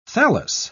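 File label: "thallus", English, British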